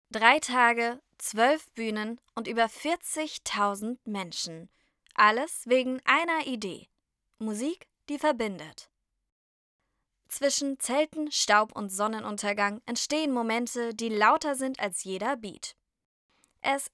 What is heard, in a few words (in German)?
Hoerprobe Dokumentation